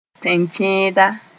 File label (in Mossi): "tẽn-kẽeda", Moore